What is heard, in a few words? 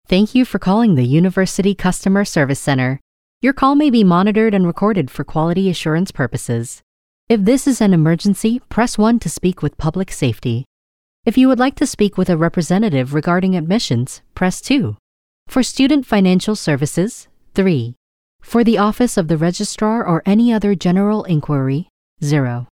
english-us female ivr